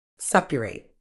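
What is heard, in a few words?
"suppurate", British English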